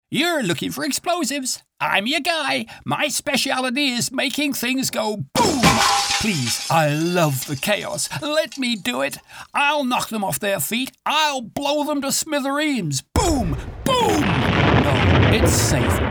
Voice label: Animation